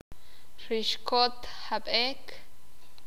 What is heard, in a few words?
sakapulteko; ambos los dos